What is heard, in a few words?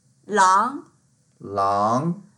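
"lang2", Mandarin Chinese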